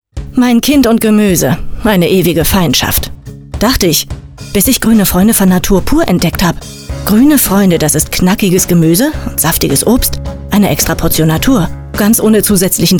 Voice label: werbung

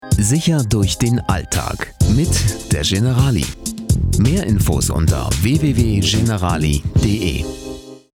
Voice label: stimmprobe tvtrailer

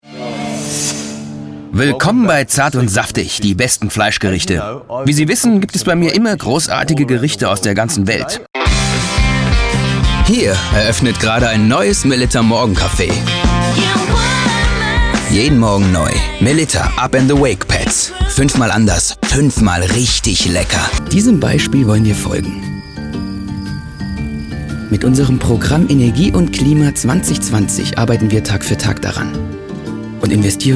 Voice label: sprachtrailer

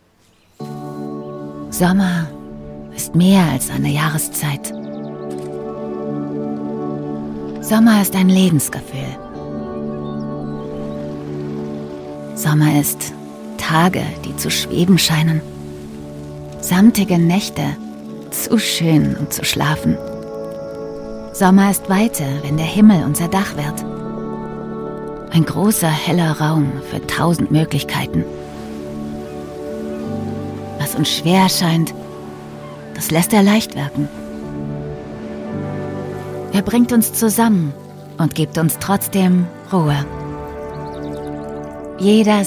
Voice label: image film deutsch; garpa

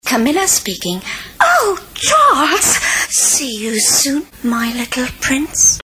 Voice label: Englisch

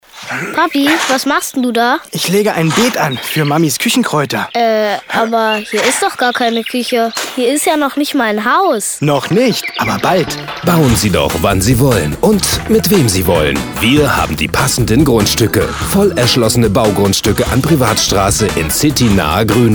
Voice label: spot; pip immobilien

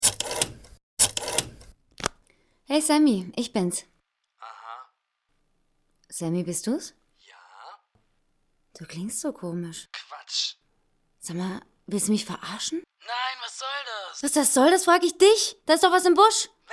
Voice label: szene anruf genervt

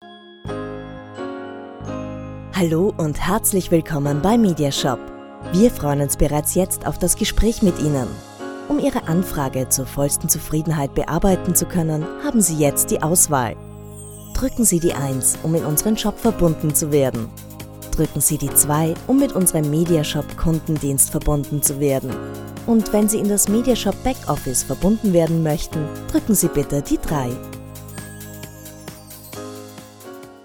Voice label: telefonwarteschleife; mediashop; jaz

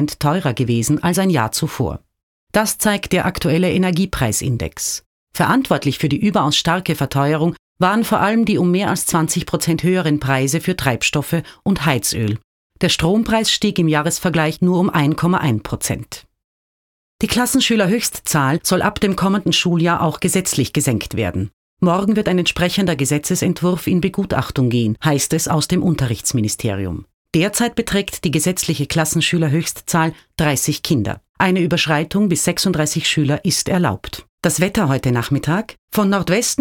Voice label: nachrichten

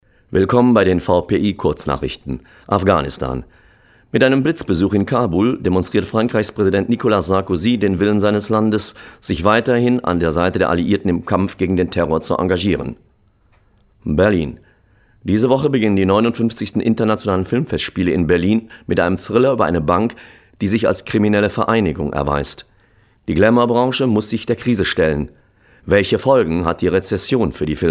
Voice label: radio

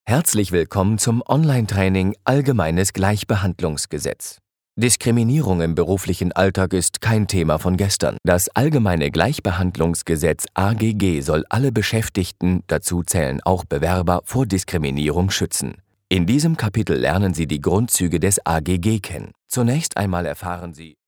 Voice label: onlinetraining

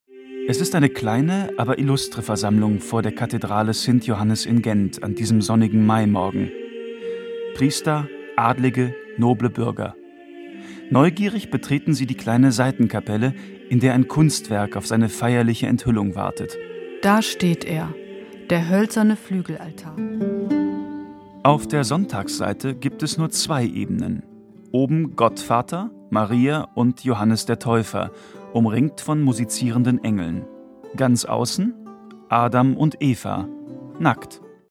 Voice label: Audioguide; genter altar

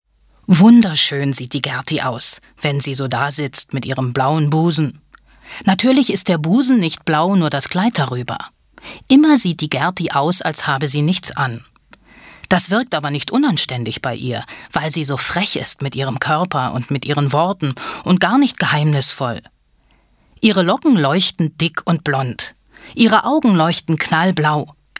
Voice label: hoerbuch

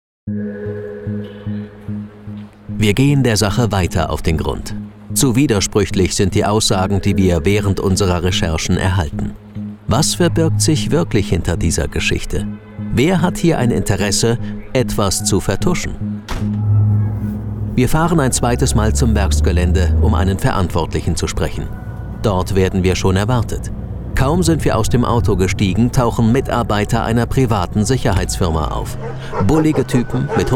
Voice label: investigativ sprache; die grosse vertuschung

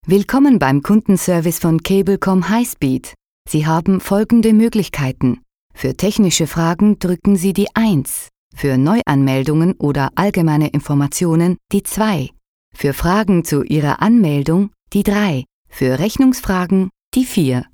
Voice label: deutsch

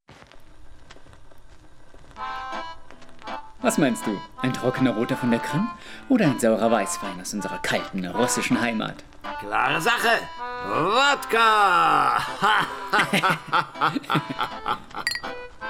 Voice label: trailer; maler

